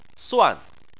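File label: "suan", Chinese, fourth tone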